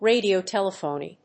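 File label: "radio telephony", English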